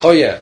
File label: "oh yeah", voice; carlin